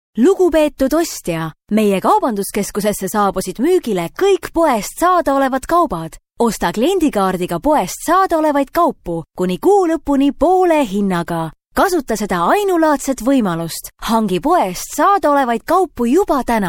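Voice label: estonian female commercial; voice demos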